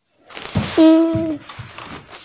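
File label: "xue2xiao4" Mandarin Chinese, female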